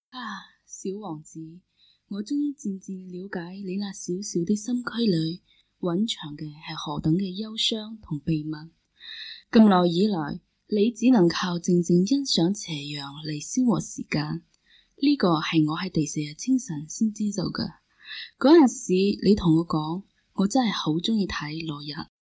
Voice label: Chinesisch Guangzhou

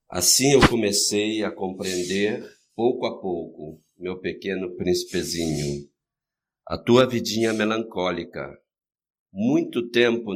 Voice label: Spanisch Rio de Janeiro

